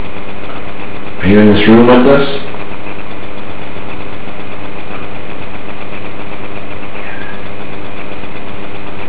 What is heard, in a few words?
E.V.P. whisper "yes"; basement